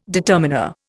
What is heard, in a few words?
"determiner", Indian